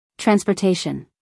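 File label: "transportation", American English, female; everyone-can-use-english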